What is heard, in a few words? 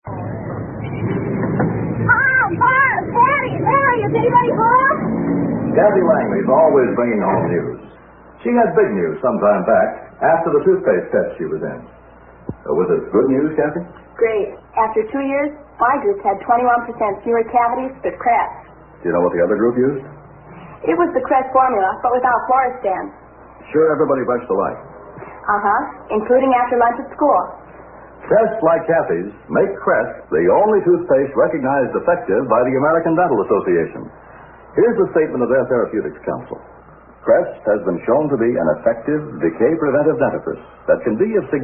Vintage Commercials; Crest Toothpaste